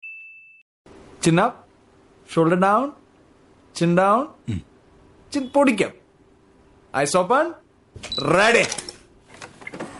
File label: malayalam driving comedy